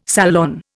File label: "salon", Indian